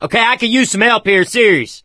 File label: call for rescue, survivor voice